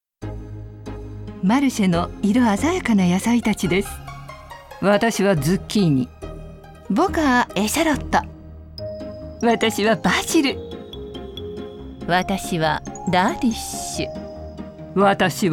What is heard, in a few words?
CM marche character